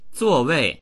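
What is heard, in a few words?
"zuo4wei4", Mandarin Chinese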